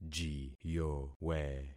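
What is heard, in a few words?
"jiyowe", concatenated syllables; Affixing experiment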